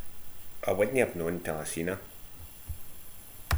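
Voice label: "knawn", slang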